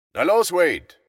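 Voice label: dialogue German; A Western Drama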